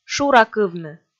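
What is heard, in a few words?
"шуракывны", Komi, female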